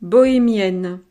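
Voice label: "bohemienne", French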